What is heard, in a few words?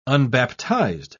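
"unbaptized", British English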